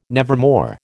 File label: "nevermore", American